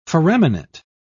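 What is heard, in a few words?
"foraminate", en, uk British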